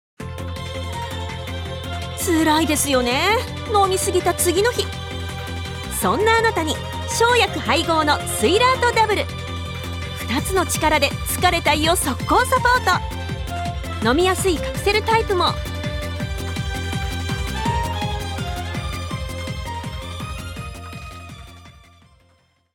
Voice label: CM conversational Cheerful